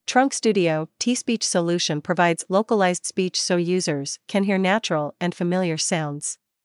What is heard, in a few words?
English US